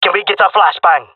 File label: request flash, male; player vo; Counter Strike Model Pack; CS:GO